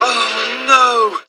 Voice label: "oh no", Voice